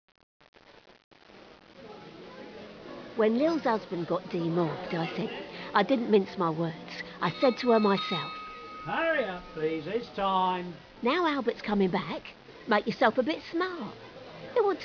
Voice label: radio drama